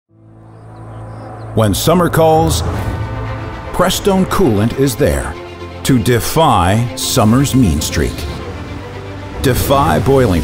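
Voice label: English US, TV Werbespots Online Werbespots Breites Publikum; NATIVE PROFISPRECHER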